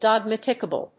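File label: "dogmatical", English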